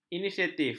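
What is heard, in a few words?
"inisiatif", Malay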